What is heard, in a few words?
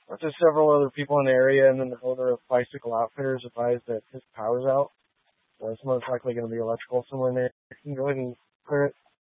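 Police Dispatch, scanner audio recording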